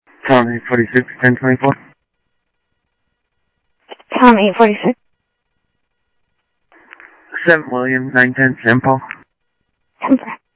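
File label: Sheriff Lake Patrol, scanner audio trunk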